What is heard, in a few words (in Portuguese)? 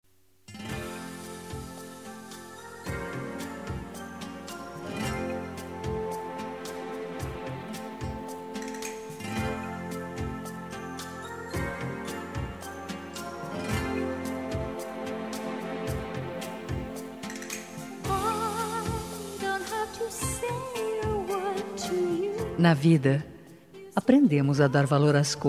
dia amigo amiga fem, dia do amigo a voz feminina